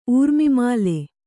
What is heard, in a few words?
"ಊರ್ಮಿಮಾಲೆ", Kannada; ūrmimāle; noun